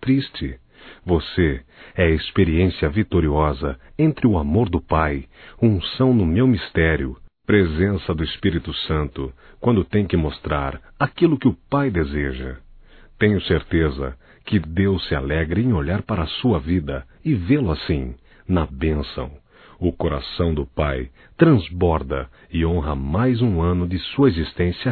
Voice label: Aniversário Pastor Masculino; Aniversarios; Telemensagens 2017

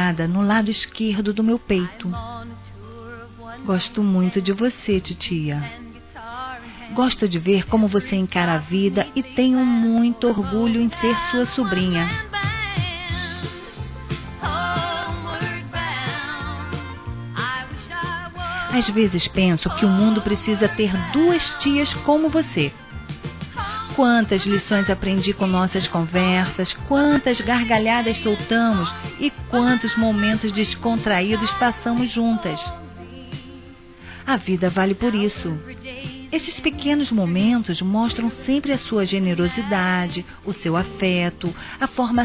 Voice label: Aniversário Tia FEMININO; Aniversários; Aniv Familiar

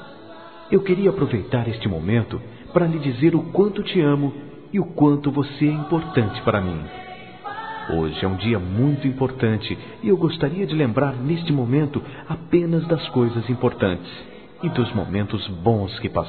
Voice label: NATAL FILHO P PAI, masc; Telemensagens; Natal e Ano novo

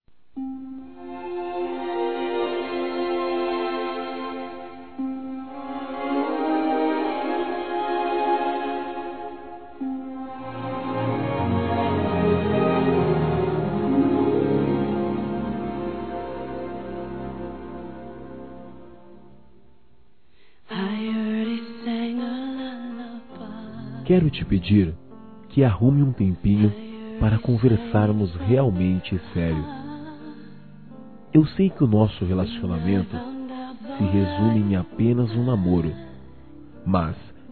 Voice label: VAMOS COMVERSAR, masc; Broncas

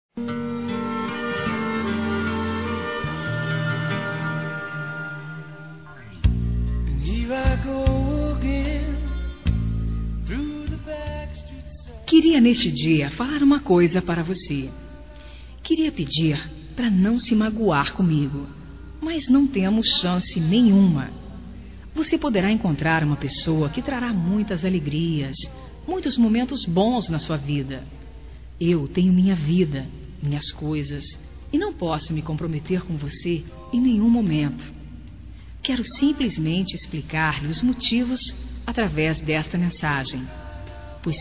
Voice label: LARGA DO MEU PÉ, Broncas, fem

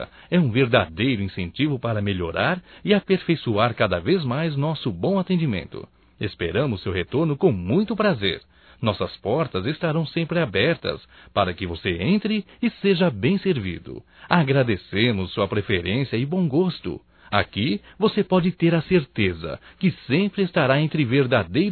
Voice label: CLIENTE FUNC PúBLICO(M); Profissionais; masc; Telemensagens 2017

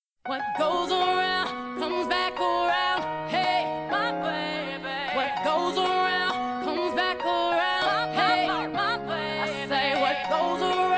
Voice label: DIA DOS PAIS ROMANTICA, fem; Telemensagens 2017

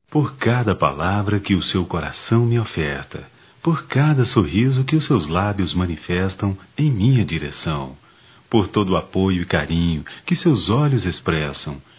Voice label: Dia da Mulher, Só Voz, masc; Telemensagens; Telemensagens 2017

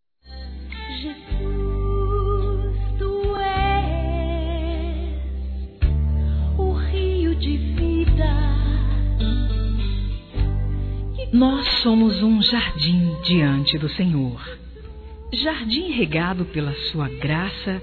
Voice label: EVANGÉLICO GERAL F, Telemensagens, Gospel, fem; Telemensagens 2017

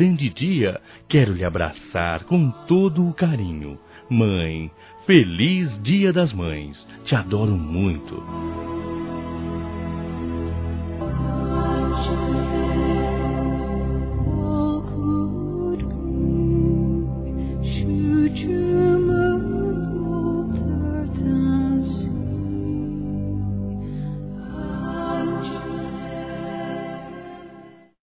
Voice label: DESCULPAS M, Telemensagens; Dia das Mães; Masc; Telemensagens 2017

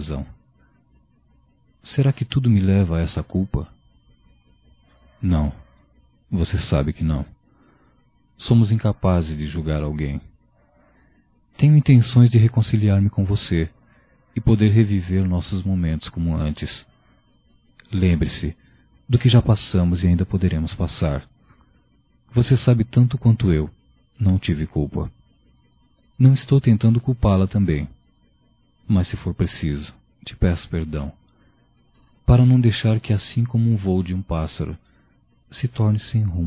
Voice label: Me de Outra Chance Masculino, Só Voz; Telemensagens; Telemensagens 2017

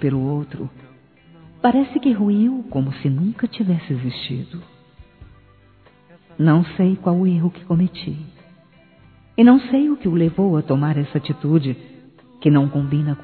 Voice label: Estou Decepcionado Feminino, Telemensagens; Desculpas; Telemensagens 2017

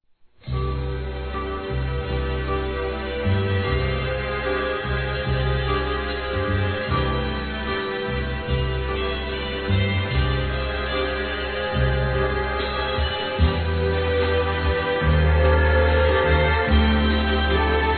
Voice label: ROMANTICO Masculino; Telemensagens; Dia Dos namorados; Telemensagens 2017

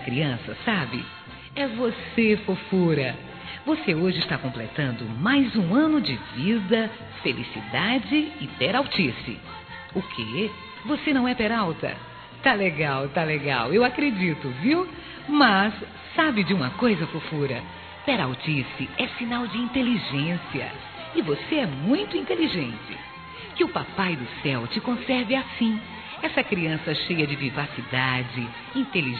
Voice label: NEUTRO PARABÉNS, Aniversário Infantil; Telemensagens

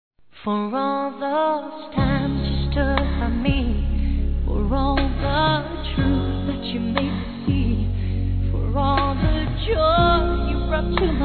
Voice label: TERMINANDO NAMORO M; Broncas

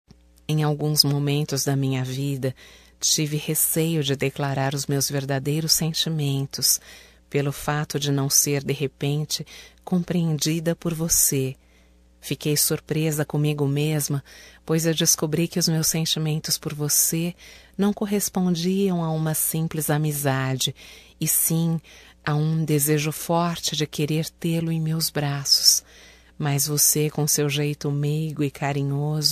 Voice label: ROMâNTICA V - F; Românticas

